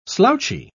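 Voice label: "slouchy", British English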